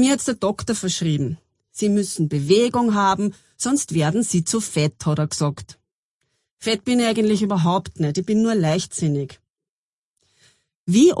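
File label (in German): Dialekt Oberösterreich Radlfahren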